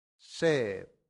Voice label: "sed", Latin; santillana

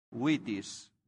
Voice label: "vitis", latin; santillana

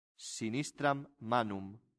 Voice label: "sinistram manum", latin; santillana

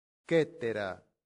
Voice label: "cetera", latin; santillana

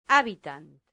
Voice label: "habitant", latin; santillana

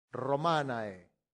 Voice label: "romanae", latin; santillana

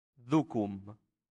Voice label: "ducum", latin; santillana

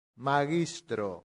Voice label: "magistro", latin; santillana

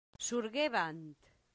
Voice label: "surgebant", latin; santillana

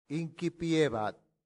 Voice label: "incipiebat", Latin; santillana

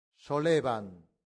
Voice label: "solebant", latin; santillana

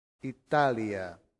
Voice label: "italia", Latin; santillana

